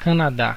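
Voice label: "canada", Brazilian Portuguese; gcompris; geography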